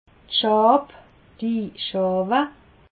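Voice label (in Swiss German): "schawe", Alsatian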